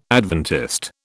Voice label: "Adventist", British

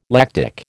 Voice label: "lactic", American

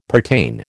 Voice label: "pertain", American

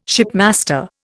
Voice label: "shipmaster", Indian

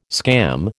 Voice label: "scam", American

